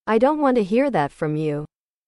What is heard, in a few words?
english